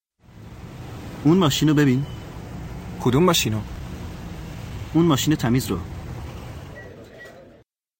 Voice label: Dialogue lesson Farsi